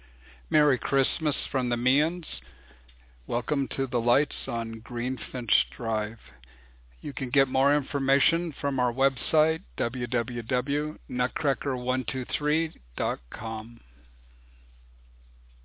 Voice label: announcement; nutcracker